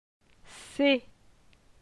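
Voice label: "C'est", French; phonetique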